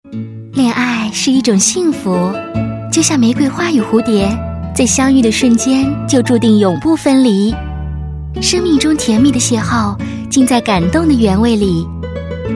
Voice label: VOICES ENGLISH Male Adult; KERSOUND